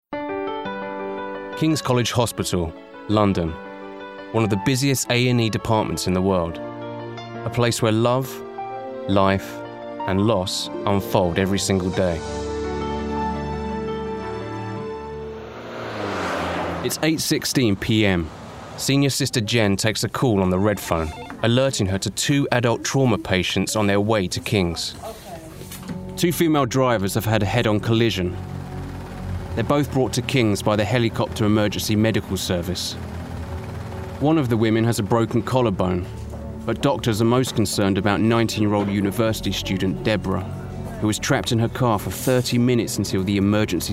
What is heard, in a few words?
DOCUMENTARY; 24 Hours A&E